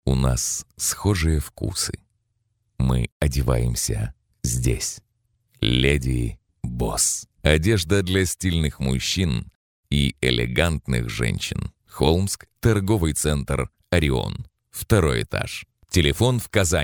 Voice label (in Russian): muzhskie golosa, diktory